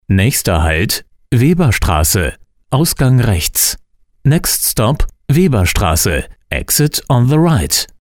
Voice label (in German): haltestellenansage männlich